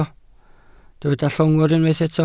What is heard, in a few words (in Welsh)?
"llongwr", Welsh